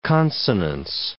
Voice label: "consonance", English